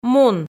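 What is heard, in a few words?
"мун", Komi, female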